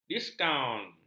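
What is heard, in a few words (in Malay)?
"diskaun", Malay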